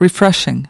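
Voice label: "refreshing", English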